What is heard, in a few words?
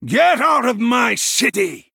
Male Human Attack